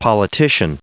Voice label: "politician", en-us